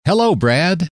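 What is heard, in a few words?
hello, male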